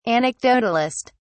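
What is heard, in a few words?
"anecdotalist", British English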